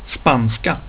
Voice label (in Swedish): "spanska", swedish